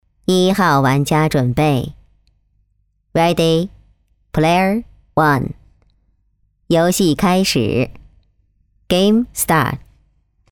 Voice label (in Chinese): 女 角色 效果未加 机器人